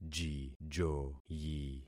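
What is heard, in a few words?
"jijoyi", concatenated syllables; Affixing experiment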